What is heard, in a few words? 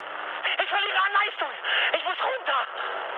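German damaged engine mood high, speech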